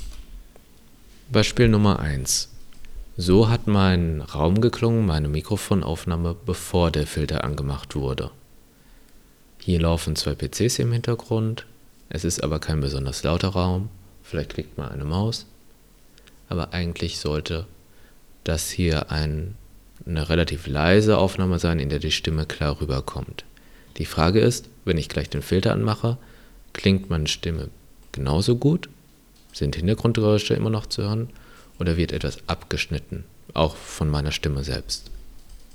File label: test unfiltered without noise; rnnoise